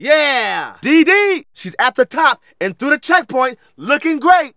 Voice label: Narration; Ollie King

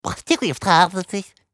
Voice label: Professor Talk; Character Professor Talk

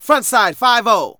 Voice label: Narration

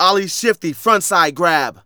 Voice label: Narration; Ollie King

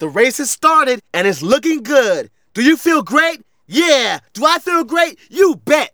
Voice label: Narration

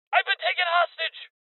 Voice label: Voices Hostage Taken Male; Rebel Cops

